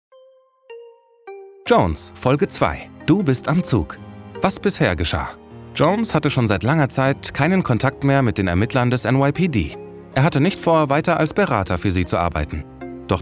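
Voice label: German Audio Play Narration Erzaehler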